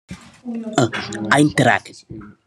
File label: yapping